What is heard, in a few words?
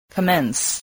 "commence", voice